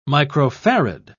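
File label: "microfarad", British English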